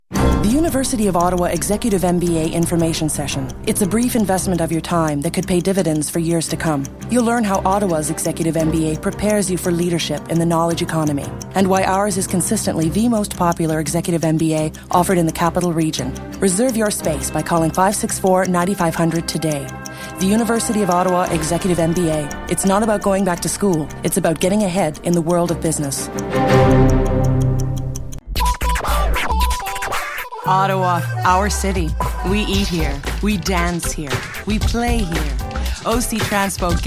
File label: Eng commercial